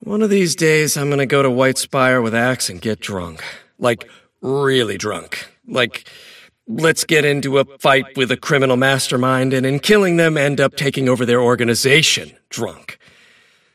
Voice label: monologue; davion; dota; dragon knight